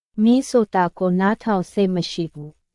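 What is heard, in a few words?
Myanmar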